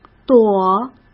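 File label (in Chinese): "躲", Mandarin Chinese; hsk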